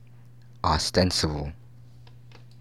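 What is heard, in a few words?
"ostensible", American English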